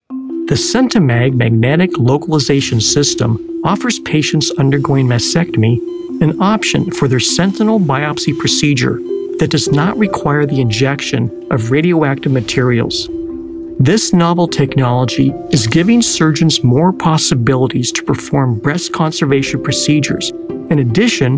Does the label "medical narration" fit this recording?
Yes